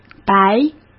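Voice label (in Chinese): "白", Mandarin Chinese; hsk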